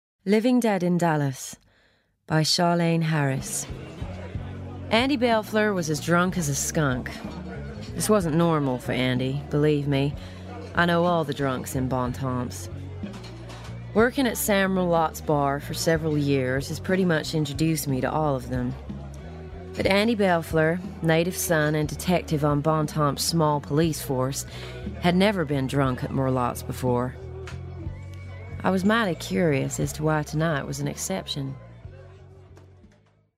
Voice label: Audiobook; Living Dead in Dallas

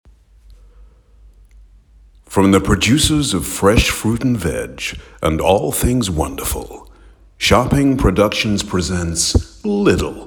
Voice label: Advert American Accent; Lidl

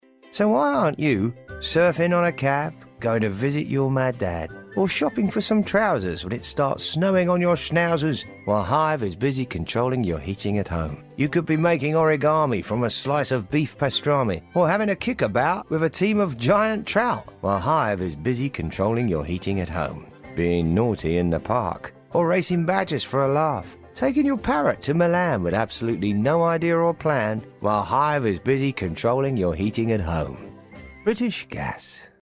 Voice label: commercial; British Gas

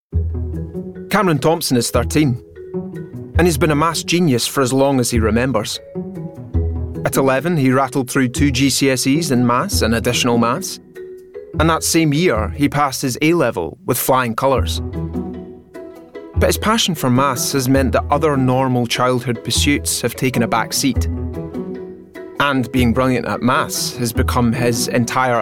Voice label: Documentary; The Growing Pains Of A Teenage Genius

